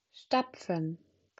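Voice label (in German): "stapfen", German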